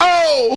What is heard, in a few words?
"oh" male